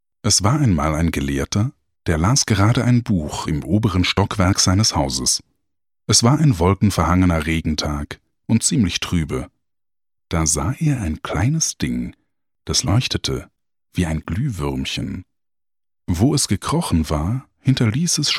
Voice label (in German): Voicereel Kommentar Voiceover